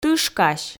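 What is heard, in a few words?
"тышкась", Komi, female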